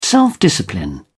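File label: "self-discipline", British English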